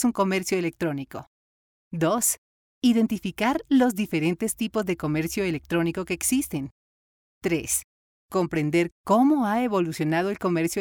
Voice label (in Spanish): E Learning E commerce